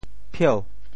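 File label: "phieu2", Teochew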